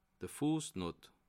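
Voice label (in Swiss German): "fuessnott", Bas Rhin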